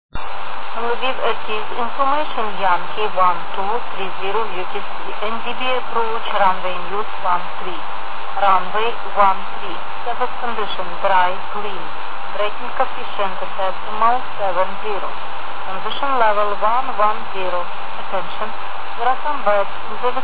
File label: atis